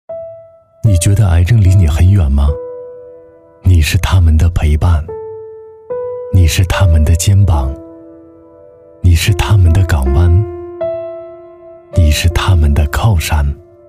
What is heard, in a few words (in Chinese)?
男 专题 温情讲述; 赢加国际